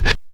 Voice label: VOX FX; E-Lab; X Static Goldmine 2; akai